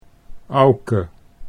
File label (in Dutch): "Auke", Dutch